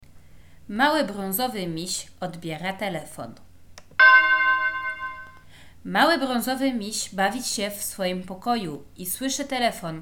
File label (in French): petit ours brun repond au telephone polonais